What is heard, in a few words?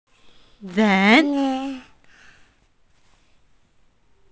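"ren2", Chinese, female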